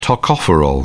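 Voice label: "tocopherol", British English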